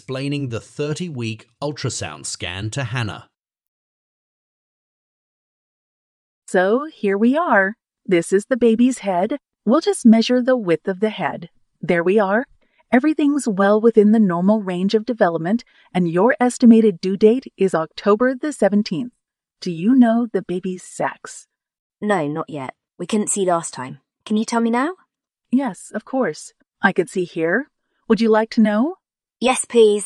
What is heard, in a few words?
Conversation; Bedside Manner Intermediate